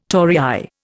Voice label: "torii", Indian